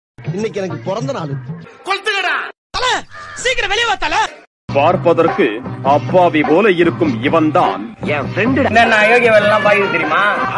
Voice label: Birthday Wishes Funny Dialogue Tamil